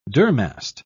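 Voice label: "durmast", British English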